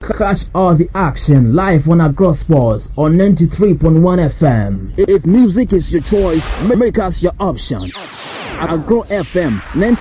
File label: STATION LINERS AND DJ DROP; Ghana; in the studio